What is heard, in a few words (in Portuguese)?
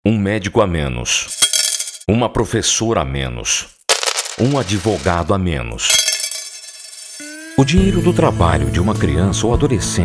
spot trabalho infantil